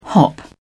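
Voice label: "hop", British English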